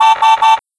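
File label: "overpower", English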